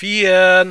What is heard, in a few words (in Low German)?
"fiern", plattdeutsch